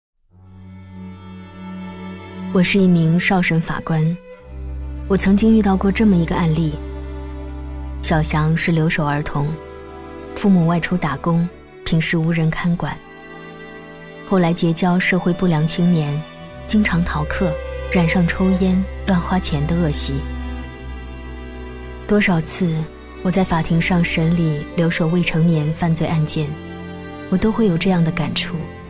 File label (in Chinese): 女, 独白 讲述; 守护同行-少审法庭讲述